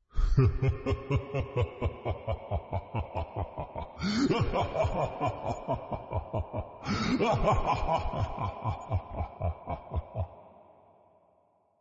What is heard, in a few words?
spooky wizard